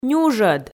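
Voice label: "нюжӧд", Komi, female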